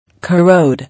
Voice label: "Corrode", English

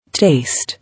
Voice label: "Taste", English

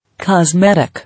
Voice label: "Cosmetic", English

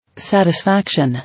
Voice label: "Satisfaction", English

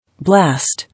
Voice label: "Blast", English

